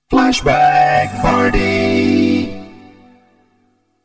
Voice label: vox Flanger; Flashback